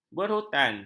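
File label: "berhutan", Malay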